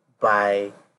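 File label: "by", Nigerian Pidgin, male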